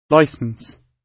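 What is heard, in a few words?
"licence", British English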